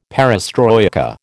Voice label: "perestroika", American